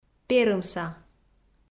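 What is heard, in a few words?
"перымса", Komi, female; audio dict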